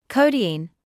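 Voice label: "codeine" English, British; ˈkəʊ.diːn; KOH-deen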